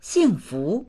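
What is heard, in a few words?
"xing4fu2", Mandarin Chinese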